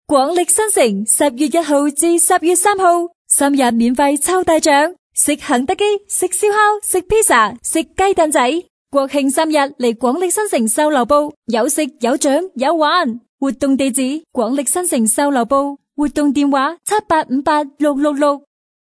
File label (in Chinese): 女声 粤语 促销 节日 售楼 国庆活动